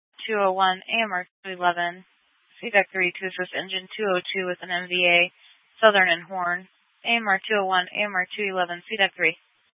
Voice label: FD Dpts VHF 156.240 Patch

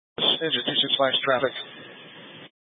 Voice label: Fire Departments, scanner

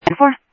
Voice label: Sheriff Central, scanner audio trunk; ThompsonPeakScot; MCSO Central

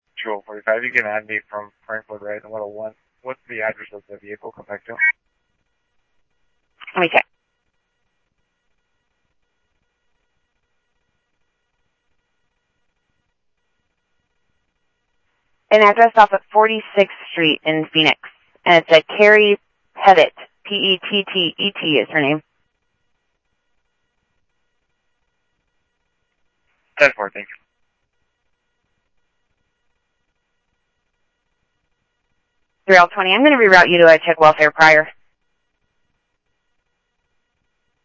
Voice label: Police, scanner audio; ThompsonPeakHigh; SCTSDLE PD - PD2 ScottsdalePolice

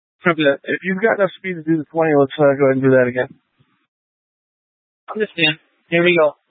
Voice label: Light Rail Emergency, scanner

